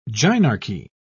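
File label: "gynarchy", British English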